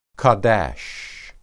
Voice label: "qadash", Hebrew